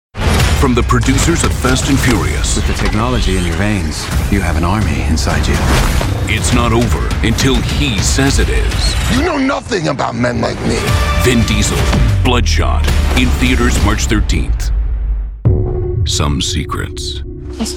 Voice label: TRAILER

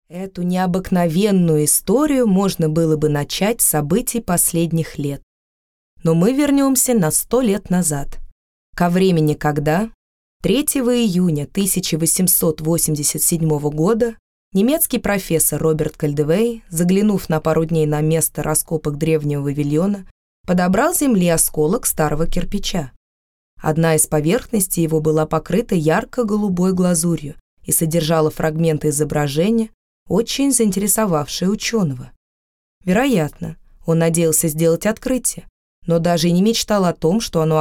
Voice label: Russian Audio Books

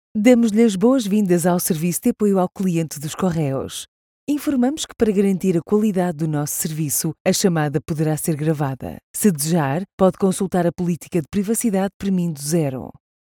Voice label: VO IVR; Correos

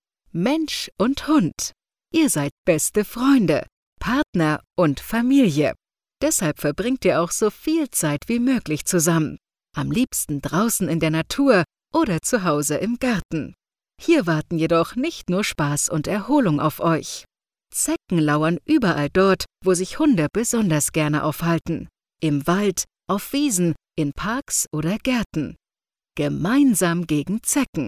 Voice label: Demo Online Ad; Seresto

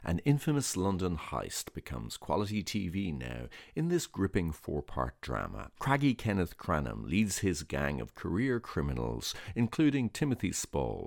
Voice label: TV announcer

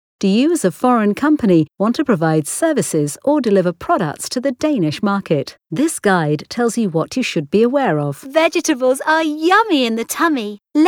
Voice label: E LEARNING

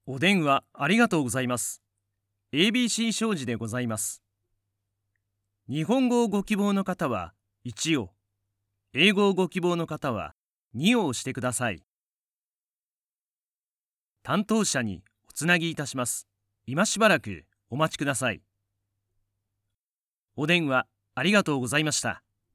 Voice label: IVR

